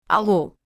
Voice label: Portuguese when answering the phone; Greetings

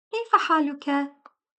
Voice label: Arabic How are you; Greetings

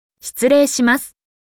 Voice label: Japanese Excuse me; Greetings

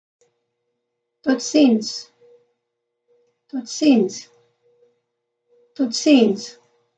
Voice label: Afrikaans Goodbye; Greetings

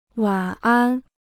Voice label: Mandarin Good night; Greetings

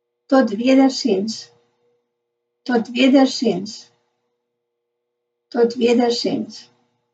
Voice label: Afrikaans Until we meet again; Greetings

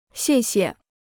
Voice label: Mandarin Thank you; Greetings

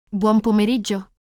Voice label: Italian Good afternoon; Greetings

